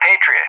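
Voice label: "Patriot", English; JTAC; AirDefence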